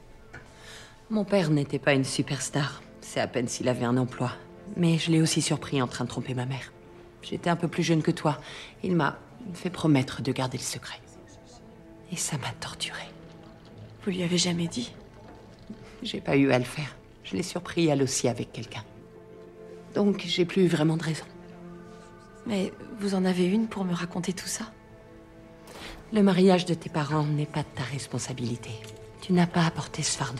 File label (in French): doublage; code black